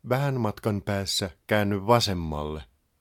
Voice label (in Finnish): Ah Left Turn, Finnish male; Opas